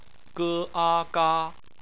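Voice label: "ga" bopomofo, Chinese; phonetics; bpmf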